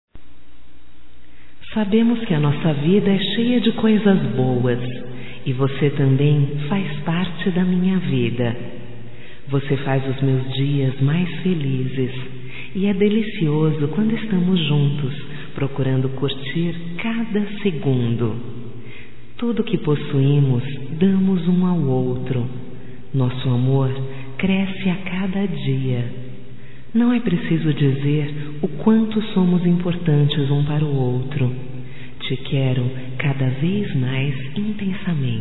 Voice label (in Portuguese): voz Romântica